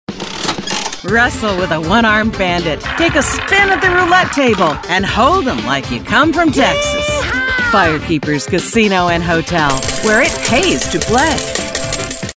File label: commercial casino